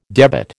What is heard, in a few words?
"debit", American